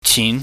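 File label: "chin", Cantonese, mid rising tone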